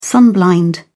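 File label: "sunblind", British English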